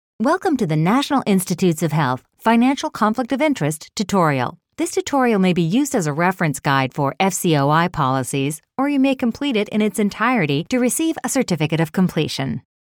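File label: Federal Government Friendly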